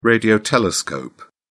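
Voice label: "radio telescope", British English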